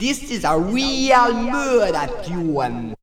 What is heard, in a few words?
"murda", vox FX; Zero-G Jungle Warfare 1, 2 & 3